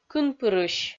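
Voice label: "кынпырысь", Komi, female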